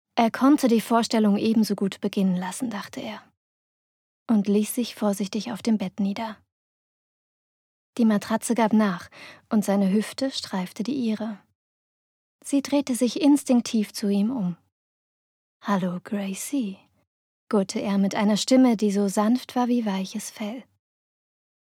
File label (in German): hoerbuch; Muttersprache Deutsch